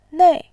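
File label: "nei", Mandarin, tone 4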